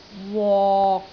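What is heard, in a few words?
"wok6", Cantonese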